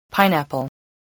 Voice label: "pineapple", voice, English